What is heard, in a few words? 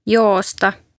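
"Joosta", Estonian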